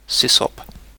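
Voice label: "sysop", British English; pronunciation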